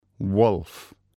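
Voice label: "wolf", English word; animals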